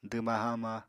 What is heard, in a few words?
"dwma-haama", Apatani